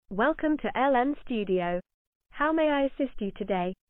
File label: 8khz